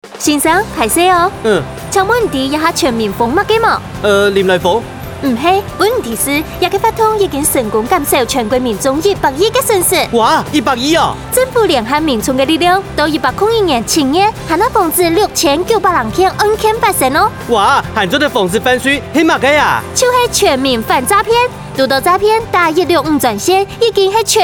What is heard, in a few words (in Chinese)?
客語四縣腔, 行政院反詐騙宣導; 30秒